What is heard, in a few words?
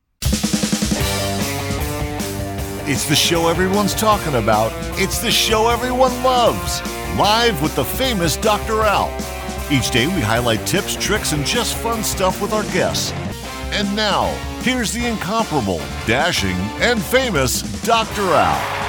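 Podcast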